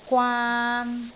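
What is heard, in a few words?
"gwaan3", Cantonese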